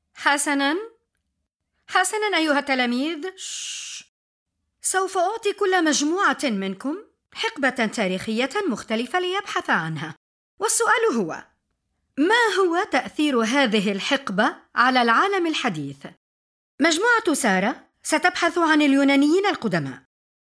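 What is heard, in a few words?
arabic female narration, voice demos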